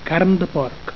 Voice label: "carn de porc", catalan